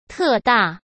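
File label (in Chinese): "特大" Mandarin Chinese; hsk; chinese-flashcards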